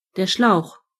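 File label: hose, German voices words; gcompris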